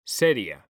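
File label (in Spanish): "seria", male; mekanta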